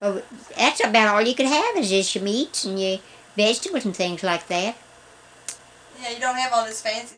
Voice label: Conversation with 76 year old white female West Virginia, american english dialect